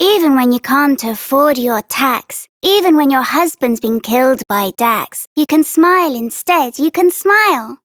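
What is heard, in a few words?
Child Rhymes Happy Child Female; Duviri; Childrens Rhymes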